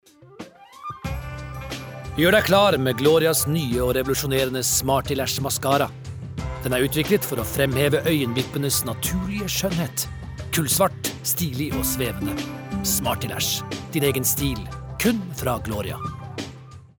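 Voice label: Norwegian soft sell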